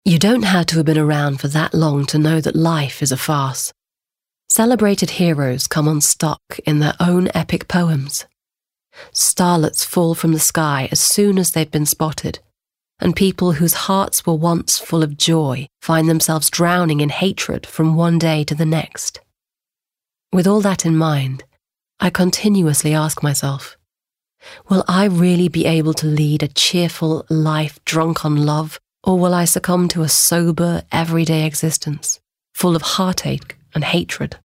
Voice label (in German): Sanft; WorldWeariness